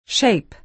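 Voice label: "shape", American English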